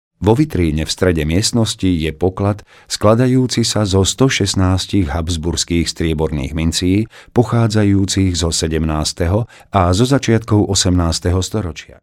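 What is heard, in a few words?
Slovak, male, narration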